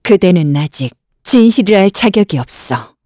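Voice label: Vox Victory, Korean; Isolet; kingsraid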